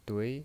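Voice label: "dui" Mandarin Chinese, second tone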